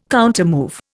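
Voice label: "countermove", Indian English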